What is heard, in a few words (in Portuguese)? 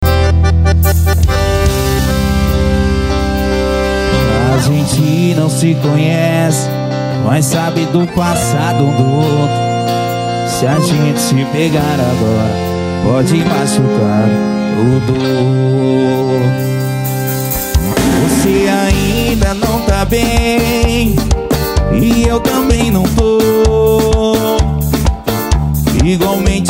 locutor a voz magnifica